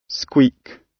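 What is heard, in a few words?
"squeak" British; Flora and fauna, agriculture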